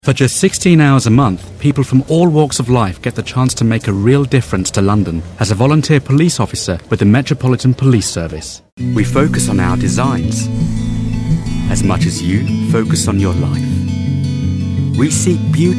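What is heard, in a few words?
British, narration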